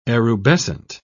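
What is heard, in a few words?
"erubescent", British English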